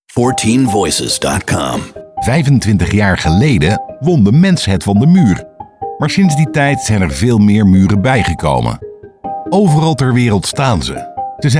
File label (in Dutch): Narratief; 14Voices